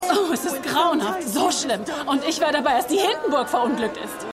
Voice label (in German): Frau, Stimmproben